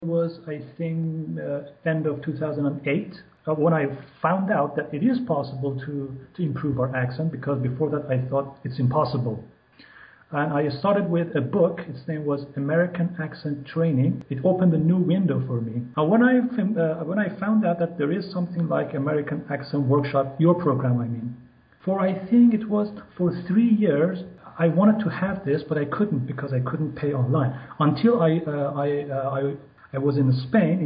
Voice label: Testimonial